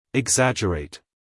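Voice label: "exaggerate", American English, male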